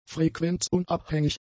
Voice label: "frequenzunabhängig", German; adjectives

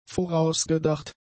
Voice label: "vorausgedacht", German; adjectives

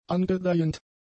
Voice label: "angedeihend", German; adjectives

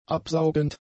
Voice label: "absaugend", German; adjectives

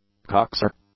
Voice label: "cocksure", English; adjectives

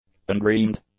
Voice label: "undreamed", English; adjectives

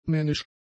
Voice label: "männisch", German; adjectives

